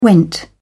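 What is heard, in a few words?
"went", British English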